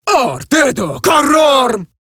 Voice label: Player Spotted, Female; Warframe; Eximus Grineer Female; Battle Barks